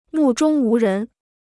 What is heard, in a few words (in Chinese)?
"目中无人", Chinese